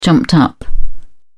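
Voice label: "jumped up", British English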